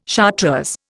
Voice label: "chartreuse", Indian